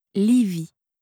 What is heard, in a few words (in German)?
"livie", franzoesische maedchennamen